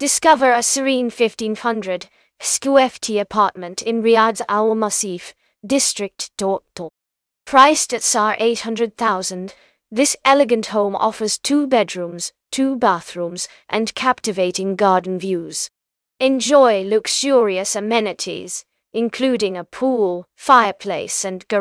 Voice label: narration audio